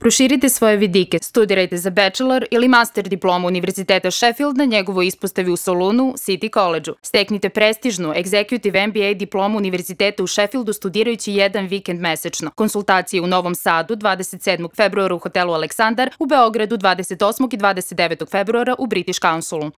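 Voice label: Serbian female commercial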